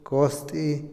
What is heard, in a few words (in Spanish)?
frotar, Otomí, hombre; INALI